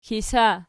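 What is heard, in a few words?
"kisa" nominative, Archi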